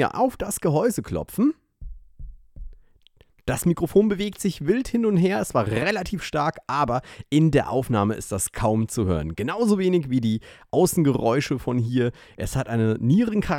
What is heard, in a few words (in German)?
sprache, Shure SM7B